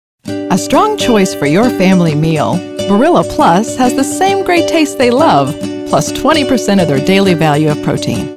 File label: Commercial; Barrilla Pasta